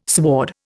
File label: "sward", Indian